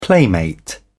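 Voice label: "playmate", British English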